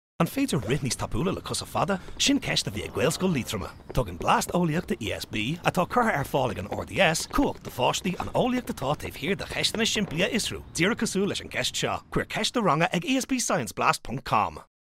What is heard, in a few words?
Irish VO